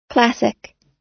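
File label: "classic", American English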